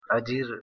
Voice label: "ajira" Hindi

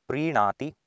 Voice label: "priiNaati", Sanskrit

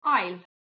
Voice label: "ayl" Armenian

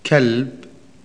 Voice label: Arabic dog; Arabic [kalb] ‘dog’

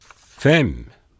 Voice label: "fem", Elfdalian

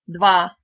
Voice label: "2" Ukrainian

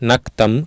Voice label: "naktam", Sanskrit

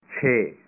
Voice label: "6", Punjabi

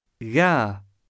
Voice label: "dha" Scots Gaelic

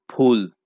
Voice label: "phul" Bengali

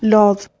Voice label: "lodh" Albanian

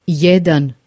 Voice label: "jedan", Croatian

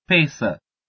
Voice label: "pese", Albanian

